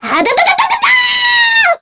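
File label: Vox Casting, Korean; Sonia; kings raid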